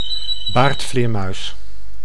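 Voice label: "baardvleermuis", Dutch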